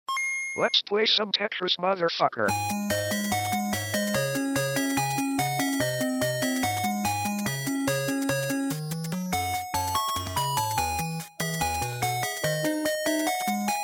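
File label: Rap Ring Spanish Ringtone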